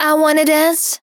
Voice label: D Wanna Fem Voc Dry